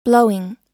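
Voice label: "blowing", American English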